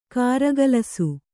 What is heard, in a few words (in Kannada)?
"ಕಾರಗಲಿಸು", Kannada